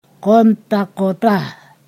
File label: "that far", Ponosakan